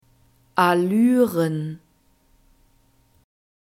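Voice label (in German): "Allüren", German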